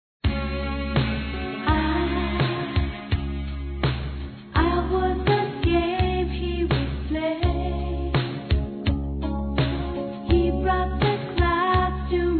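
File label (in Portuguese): AGRADECIMENTO PELA VIZITA, masculino; Telemensagens; Convites e Agradecimentos; Telemensagens 2017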